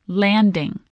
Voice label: "landing", English

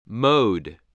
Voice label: "mode", voice, spelling

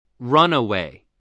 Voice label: "runaway", English spelling

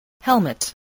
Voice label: "helmet", English, spelling

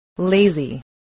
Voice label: "lazy", English

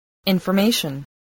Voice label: "information", spelling voice

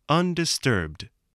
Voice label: "undisturbed", English spelling voice

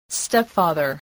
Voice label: "stepfather", voice

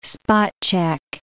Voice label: "spot-check", english

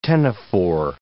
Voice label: "ctenophore", english

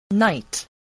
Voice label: "knight", English

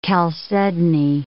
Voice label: "chalcedony", English

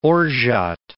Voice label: "orgeat", English word

